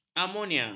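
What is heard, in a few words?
"ammonia", Malay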